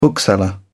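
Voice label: "bookseller", British English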